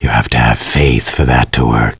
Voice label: Chat; svencoop; voice commands